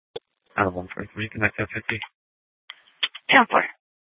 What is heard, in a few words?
Sheriff, scanner; Maricopa County (P25); Thompson Peak; Shrf Mnt (Parks)